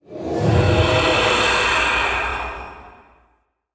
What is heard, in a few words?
Male Ghost Attack